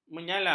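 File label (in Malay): "menyala", Malay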